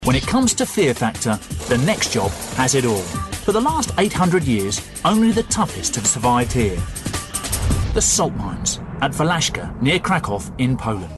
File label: documentary